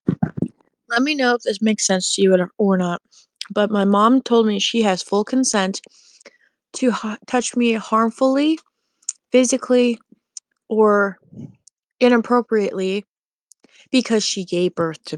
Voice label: voice message